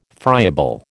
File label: "friable", American